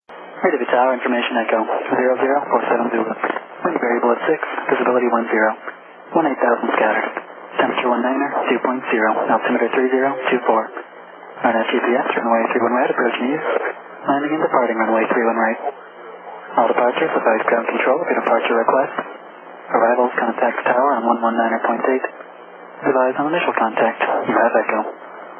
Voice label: ATIS, USA; RHV